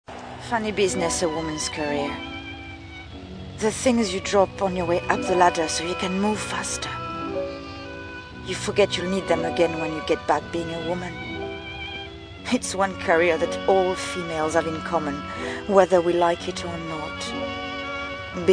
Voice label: Husky, Emotional; All about Eve